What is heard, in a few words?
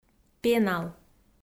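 "пенал", Komi, female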